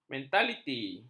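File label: "mentaliti", Malay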